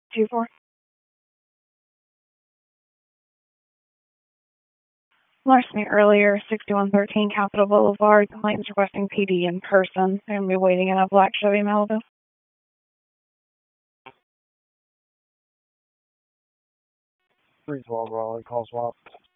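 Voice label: Raleigh Police Northeast District Dispatch, p25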